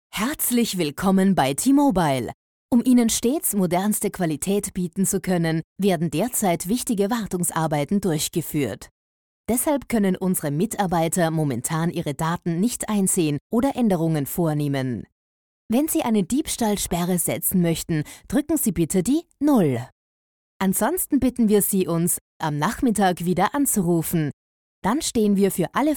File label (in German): Telefon; Tmobile; Diebstahl